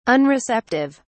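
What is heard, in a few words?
"unreceptive", British English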